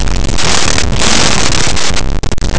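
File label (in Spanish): "cantabria", Spanish, vibrants; laboratori fonetica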